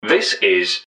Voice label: this, english man, subway announcers; MetrostroiAddon

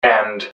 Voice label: "change and", english man, subway announcers; MetrostroiAddon

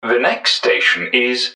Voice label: next, english man, subway announcers; MetrostroiAddon

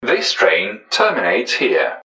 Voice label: last, english man, subway announcers; MetrostroiAddon

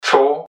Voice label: "four", english man; subway announcers; MetrostroiAddon

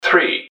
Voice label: "three", english man, subway announcers; MetrostroiAddon

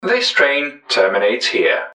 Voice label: last, english man, subway announcers; MetrostroiAddon

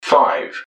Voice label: "five", english man; subway announcers; MetrostroiAddon

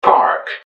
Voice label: "park", english man; subway announcers; loopline

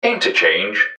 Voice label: "interchange", english man, subway announcers; MetrostroiAddon

